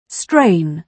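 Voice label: "strain", British English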